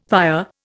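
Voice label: "pyre", Indian English; Dictionary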